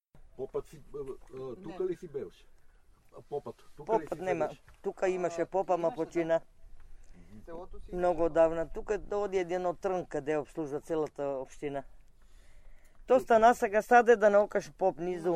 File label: Glavanovci, Bulgarian Dialectology; Glavanovci 2; Bulgarian Dialectology as Living Tradition